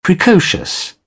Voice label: "precocious", British; ə - ɪ; English